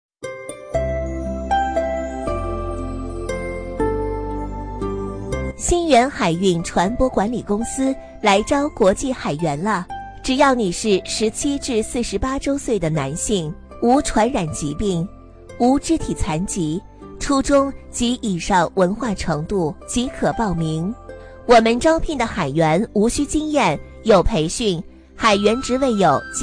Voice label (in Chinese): 女 招聘广告; 鑫远海运船舶管理公司